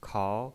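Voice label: "kao", second tone, pinyin voice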